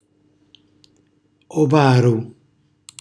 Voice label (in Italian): "ovaru", Calabrese; venditore di uova; cucina calabrese; Glossario